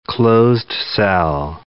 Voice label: "closed-cell", English

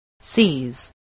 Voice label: "seize", english spelling

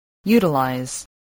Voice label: "utilize", English

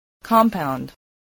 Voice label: "compound", english

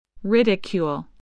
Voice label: "ridicule", English spelling

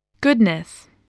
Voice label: "goodness", spelling

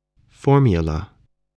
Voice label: "formula", English voice, spelling

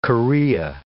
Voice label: "chorea", English

